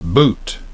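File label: "boot", English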